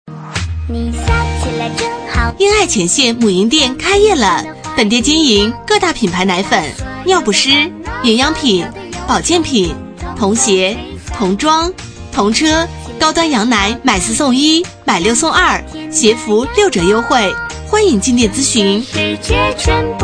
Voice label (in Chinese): 女 促销 母婴店开业啦; 婴爱前线母婴店开业啦